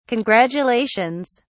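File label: "congratulations", British English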